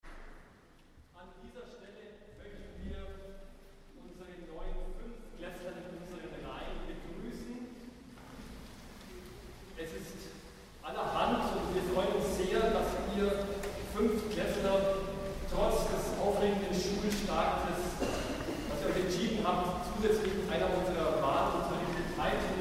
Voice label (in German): Ansage